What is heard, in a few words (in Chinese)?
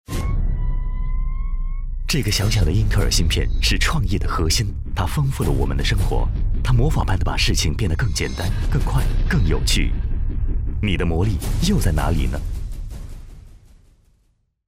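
广告_互联网_科技; 英特尔芯片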